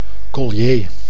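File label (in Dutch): "collier", Dutch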